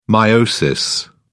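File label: "meiosis", British English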